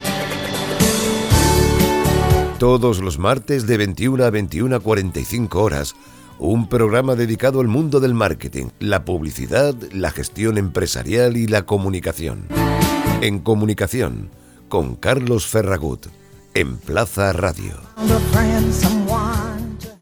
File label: Promo Radio